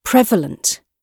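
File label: "prevalent", British English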